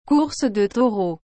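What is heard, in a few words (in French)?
"course de taureaux", French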